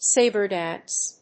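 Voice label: "Sabre Dance", English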